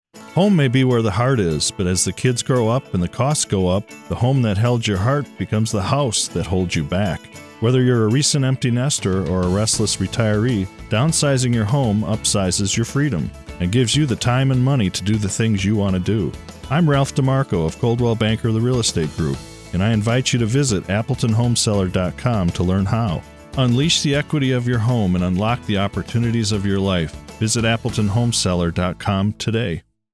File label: script radio; coldwellequity